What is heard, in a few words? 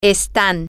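Spanish, they are, female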